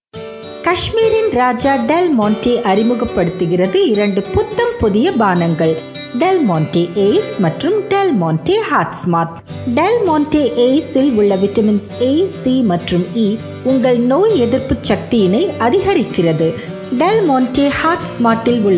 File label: promo juice tamil